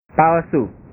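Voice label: "palsu", Indonesian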